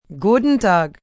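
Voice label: Good Day German, Goodbyes, male, foreign; Greetings and Goodbye; VoiceBox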